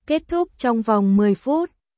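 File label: Vietnamese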